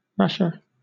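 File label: "rasher", British English; pronunciations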